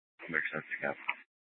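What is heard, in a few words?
Mesa Police Department Superstition Dispatch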